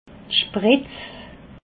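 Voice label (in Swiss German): "spritz", Alsatian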